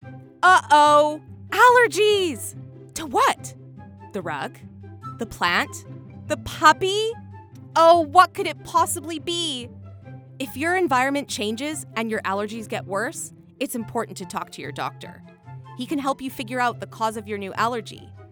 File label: female english canadian neutral north american accent corporate; zyrtec